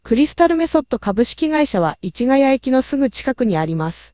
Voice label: pitch shift in